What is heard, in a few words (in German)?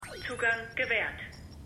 Computerstimme; Arrow